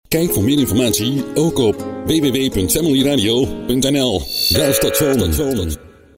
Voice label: Jingle; Audio Fragmenten; Arkan Radio 2